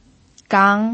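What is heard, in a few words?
"gang", second tone, Mandarin Chinese pinyin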